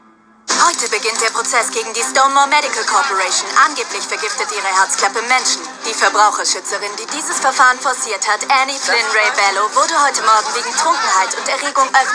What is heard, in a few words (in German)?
Synchron Reporterin